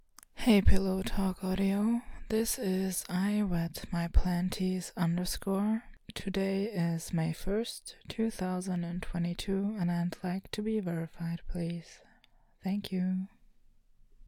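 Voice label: Verification, female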